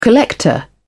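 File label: "collector", British English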